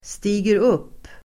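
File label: "stiger upp", Swedish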